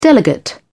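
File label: "delegate", British English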